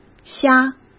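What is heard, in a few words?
"瞎", Mandarin Chinese; chinese-flashcards; hsk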